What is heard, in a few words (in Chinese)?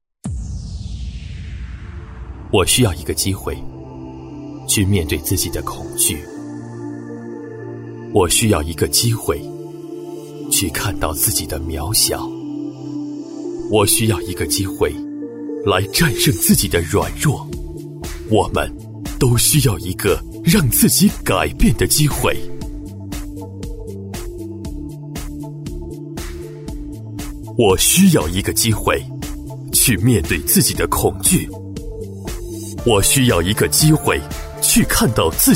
男 片花 神秘讲述; 北京卫视; 极客